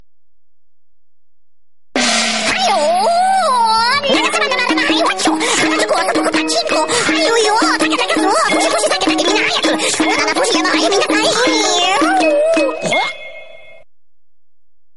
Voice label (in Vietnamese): Nhac chuong noi nhanh chipmunks